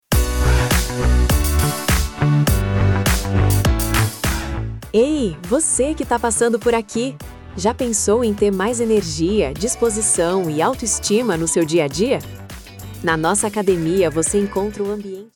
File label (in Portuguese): Vinheta Comercial Profissional em Audio Voce que esta passando Academia